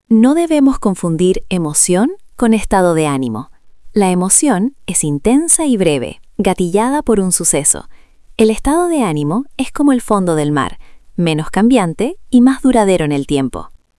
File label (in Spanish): locucion